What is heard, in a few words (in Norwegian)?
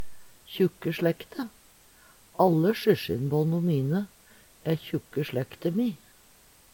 "kjukke sLekte", Numedalsmål